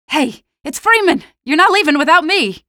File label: squad reinforce single, female; Half-Life 2